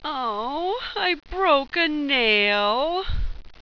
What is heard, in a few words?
"oh i broke a nail", sultry, pain